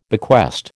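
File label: "bequest", American English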